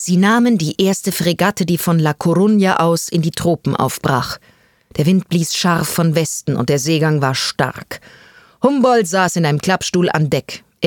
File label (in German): Hoerbuch